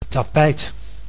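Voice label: "tapijt", Dutch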